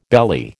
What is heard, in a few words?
"belly", American